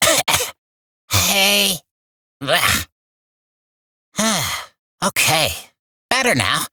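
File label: Barks Bird, English; Warframe; Entrati Cavia Vendors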